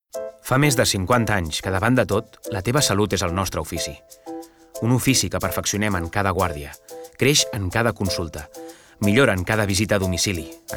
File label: male catalan comercial; ideasonora